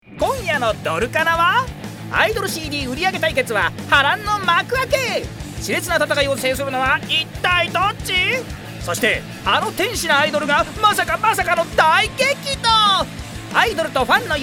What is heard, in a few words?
Narration